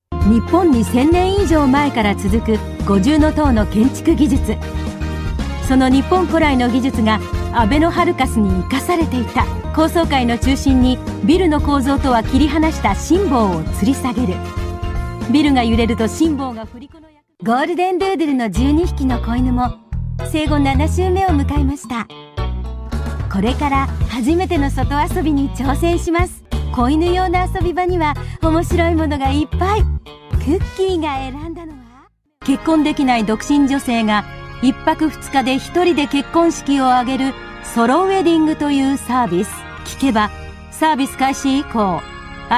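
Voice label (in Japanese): 日本語ナレーター; GAIKEI Models & Narrators; 複数の事務所でのしっかりとした長い実績は、現在の読みの縦横無尽さの基になっています。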